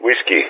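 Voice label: "Whiskey", spoken; Ka-50; Alphabet; Whiskey-continue; Speech; DCS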